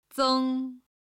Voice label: "zeng", Chinese